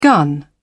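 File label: "gun", British English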